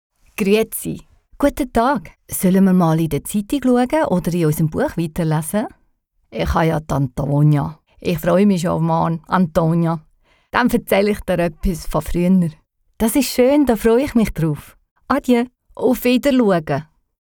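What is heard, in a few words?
Swiss, Freundlich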